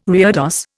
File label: "reredos", Indian